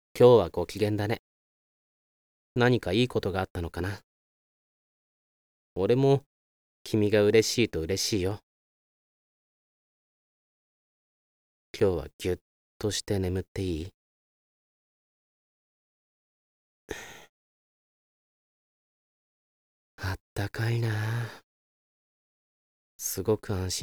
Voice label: 安眠ボイス

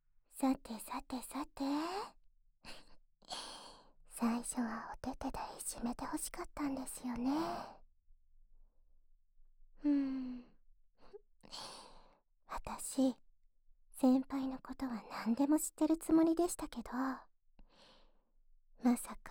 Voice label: 耳舐めローション手コキ, ASMR

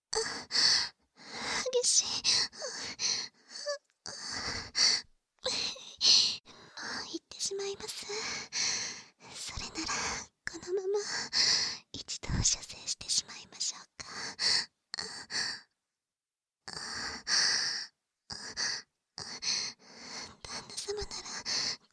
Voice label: 夜伽（バイノーラルマイク収録 効果音なし）

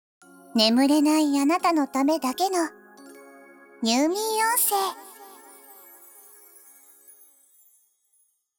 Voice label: タイトルコール

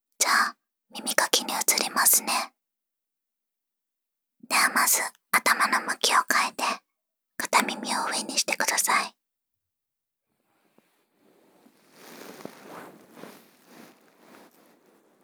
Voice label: 耳かき（梵天つき両耳）, ASMR

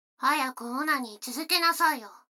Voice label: 女の子台詞, ASMR

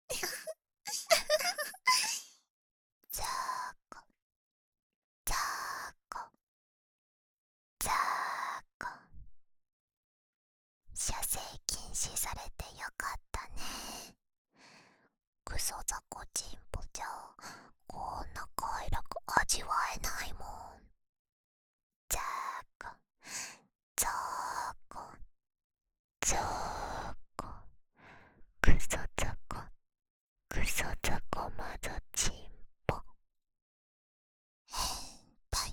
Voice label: 囁き

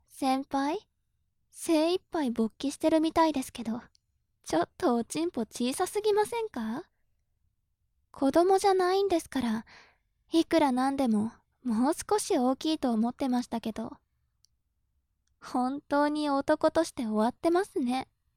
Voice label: 調教中音声, ASMR

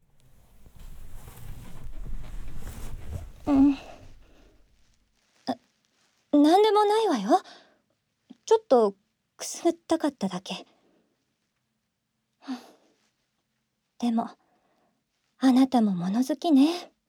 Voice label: 朗読, ASMR; ご褒美の朗読～宮沢賢治『やまなし』～

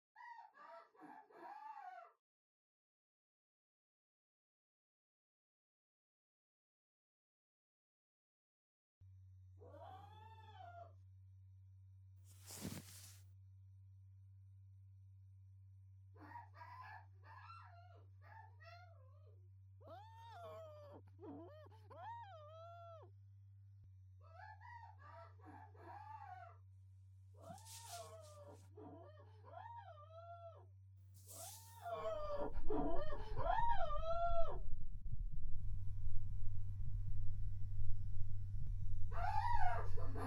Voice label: 隣の部屋から声が聴こえる

